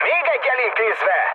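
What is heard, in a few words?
player killed enemy, mood med, Hungarian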